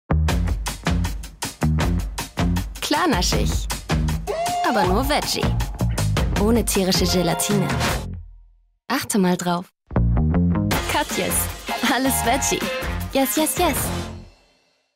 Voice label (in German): TV Spot; Katjes